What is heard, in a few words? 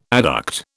"adduct", British